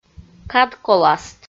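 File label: "кадколаст" Komi, female; kpv audio dict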